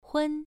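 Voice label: "hun", Mandarin Chinese, first tone, pronunciation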